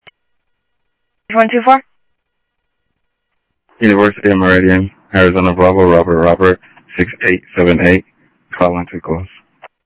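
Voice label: Sheriff East County, scanner audio trunk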